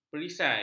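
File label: "perisai", Malay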